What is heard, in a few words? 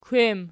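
"kwem" nominative, Archi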